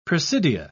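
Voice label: "presidia", British English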